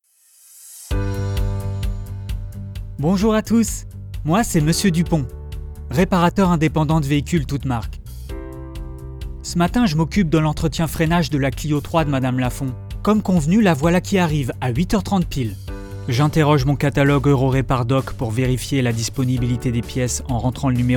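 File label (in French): Présentation motion design Voix décontractée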